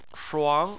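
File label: "shuang", Chinese, second tone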